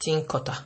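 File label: "tin cutter", Nigerian Pidgin, male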